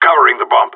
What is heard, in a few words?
guarding loose bomb, radio; GSG9